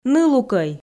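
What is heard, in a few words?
"нылукӧй", Komi, female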